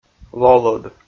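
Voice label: "лолӧд", Komi, male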